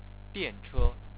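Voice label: "dian4che1", Chinese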